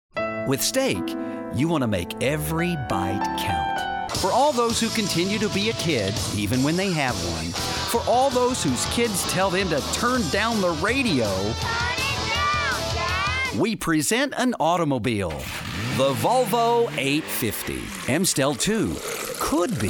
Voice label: Commercial Male, Friendly, Real, Relatable; TV and Radio, Narration, Phone Hold/IVR; Commercial Voiceover